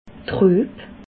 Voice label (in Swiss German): "rueb", alsace